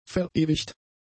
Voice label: "verewigt", German; adjectives

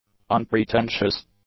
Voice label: "unpretentious", english; adjectives

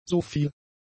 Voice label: "soviel", German; conjunctions

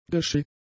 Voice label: "geschifft", German; adjectives

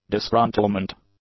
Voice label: "disgruntlement", English; nouns

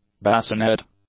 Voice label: "bassinet", English; nouns

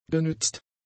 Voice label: "genützt", German; adjectives

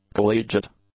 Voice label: "collegiate", English; adjectives

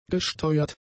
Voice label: "gesteuert", German; adjectives

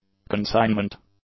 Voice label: "consignment", English; adjectives

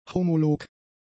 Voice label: "homolog", German; adjectives

